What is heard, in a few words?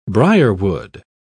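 "brierwood", British English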